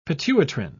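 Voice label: "pituitrin", British English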